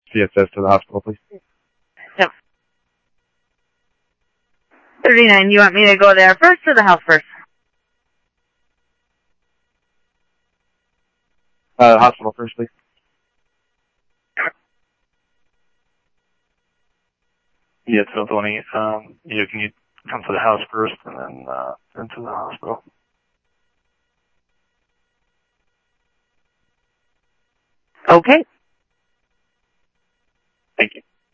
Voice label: police, scanner audio

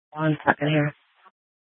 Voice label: Sheriff, scanner; Shrf Lakes

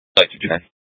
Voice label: Fire Departments Mesa Simulcast; TOPAZ

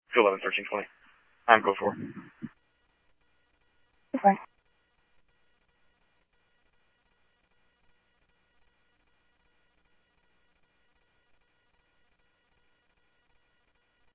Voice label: Scottsdale Police, scanner; ThompsonPeakHigh; SCTSDLE PD - PD2

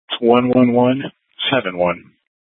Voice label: PD Dispatch

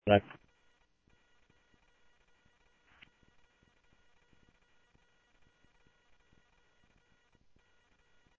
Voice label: Sheriff-EastCnty, scanner audio

